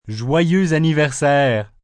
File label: Birthday, French, male; Holiday